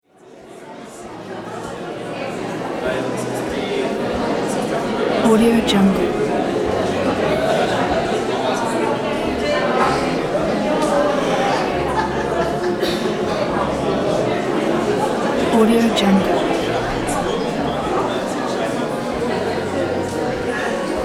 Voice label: people talking background noises ambiance